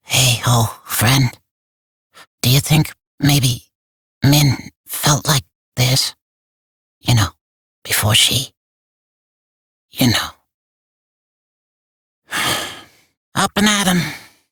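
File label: Barks Bird, English; Warframe; Entrati Cavia Vendors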